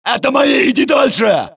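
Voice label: suppress, dialogue, Allies; mohaa; Mission 3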